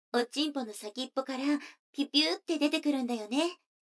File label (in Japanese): 女の子 台詞